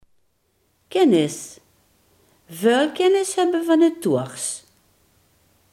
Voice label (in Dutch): "kennis", Limburgs